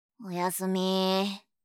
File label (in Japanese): "おやすみ"(哀)